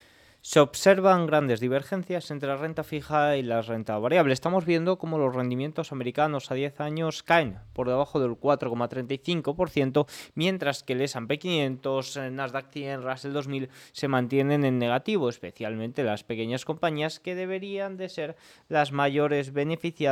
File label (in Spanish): radio; noticias bolsa